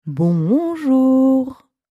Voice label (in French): "Bonjour" en trois tons; "Bonjour" vague